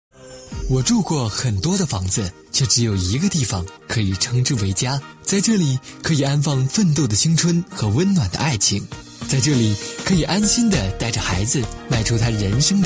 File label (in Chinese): 广告 楼盘宣传, 国语男声配音, 飞碟说广告